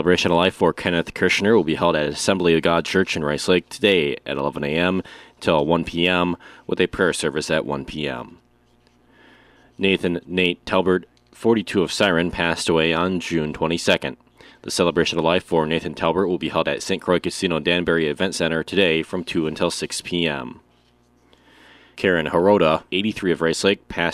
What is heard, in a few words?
Funeral Announcements